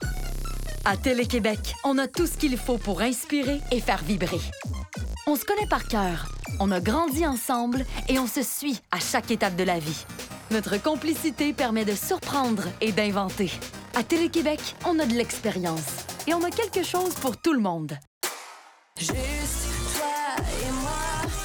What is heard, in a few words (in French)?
Demo Annonceur FR